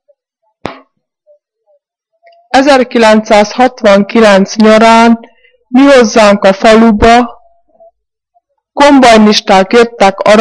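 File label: dialect collection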